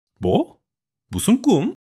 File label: fluster